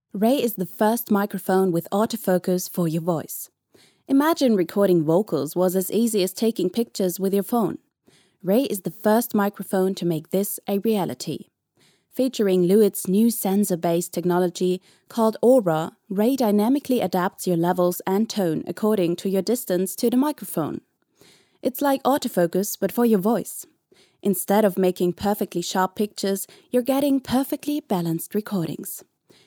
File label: fem vo mix; mix 18lufs; lewitt